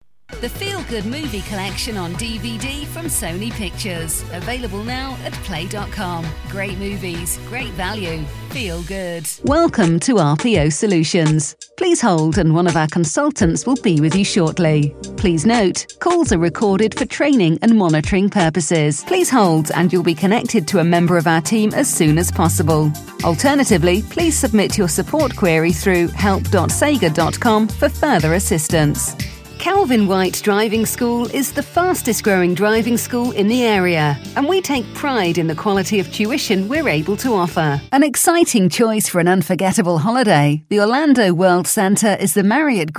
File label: English US